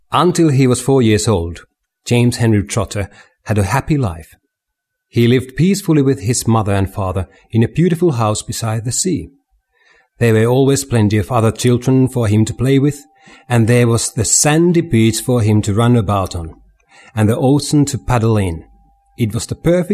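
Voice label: Finnish English; Finnish, Scandinavian, Male, 20s-40s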